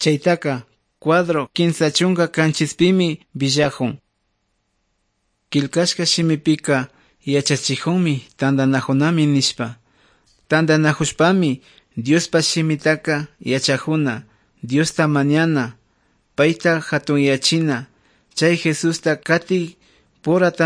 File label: good news quichua otovalo; quechua; bible